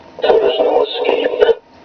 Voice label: voice changer; ROTS